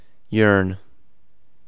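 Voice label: "yearn", English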